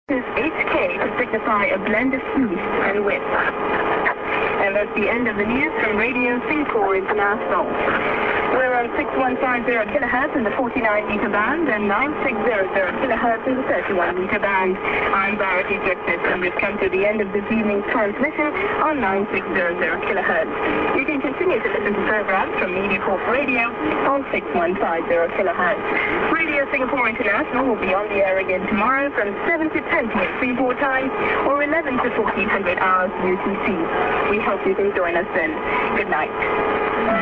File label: Eng. End ID+SKJ(MAN)->music